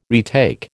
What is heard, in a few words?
"retake", American